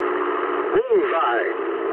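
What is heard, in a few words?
English bomb success mood med; War Thunder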